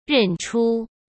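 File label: "认出", Mandarin Chinese; chinese-flashcards; hsk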